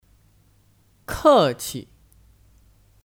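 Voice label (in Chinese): "客气" Chinese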